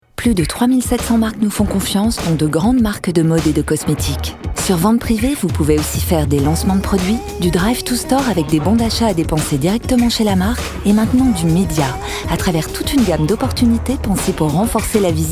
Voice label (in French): institutionnel elegante chaleureuse; VENTE PRIVEE